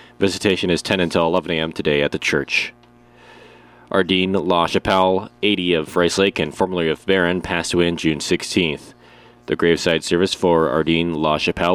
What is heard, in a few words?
Funeral Announcements